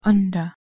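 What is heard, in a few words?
"under", English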